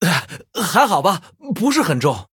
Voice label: Male Human Play, zh

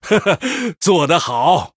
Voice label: Male Human Well Played; zh

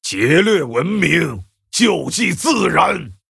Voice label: Male Orc Ogre Start; zh

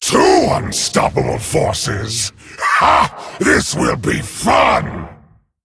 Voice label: Male Dragon; en

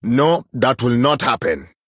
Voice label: Male Troll, ERROR GENERIC

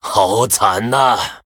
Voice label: Male Human Oops, zh

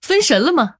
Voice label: Female Human Oops, zh

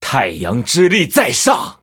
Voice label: Male Blood Elf WOW; zh

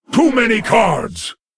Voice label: Male Lich ERROR HAND FULL; en

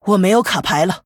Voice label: Female Human No Cards, zh

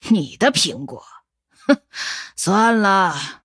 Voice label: Female Night Elf Mirror Start, zh

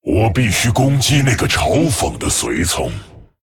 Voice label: Male Dragon ERROR TAUNT; 场面有嘲讽 Must attack taunt minion; 我必须攻击那个嘲讽的随从。; 风暴巨龙迦拉克隆（英雄）; I must attack the minion with Taunt.; zh

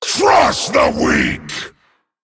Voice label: Male Dragon Attack; en

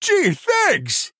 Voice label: Male Human Thanks; en

